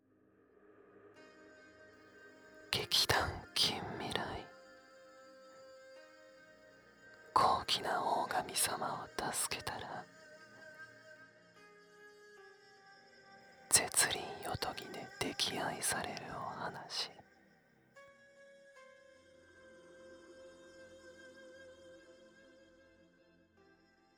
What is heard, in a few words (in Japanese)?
タイトルコール; 高貴なオオガミ様を助けたら、絶倫夜伽で溺愛されるお話